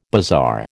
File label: "bazaar", American